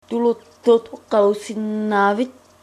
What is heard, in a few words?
do you speak english, greenlandic